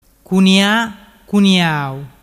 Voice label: "cuniacuniau", dialettu